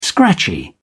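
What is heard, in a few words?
"scratchy", British English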